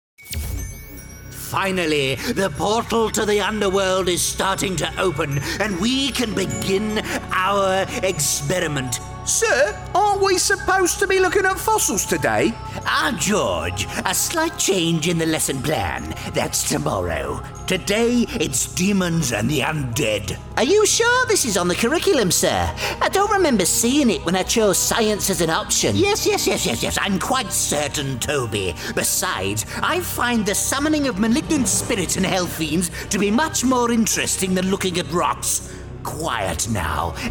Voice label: Animation